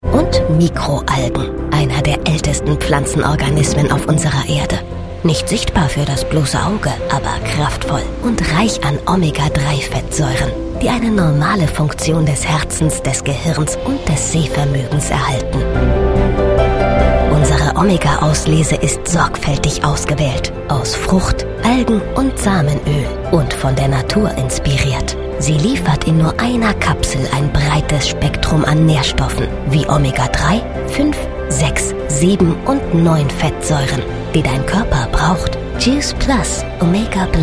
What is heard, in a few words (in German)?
Imagefilm; juice plus